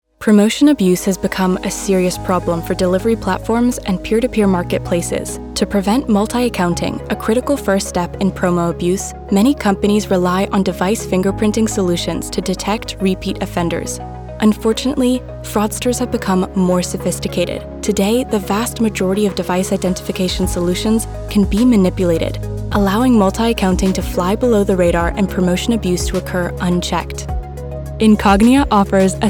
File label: voiceover en